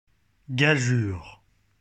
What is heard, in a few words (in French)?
gageure prononciation prononcer